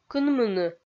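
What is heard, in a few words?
"кынмыны", Komi-Zyrian, female; kpv audio dict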